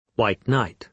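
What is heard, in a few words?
"white knight", British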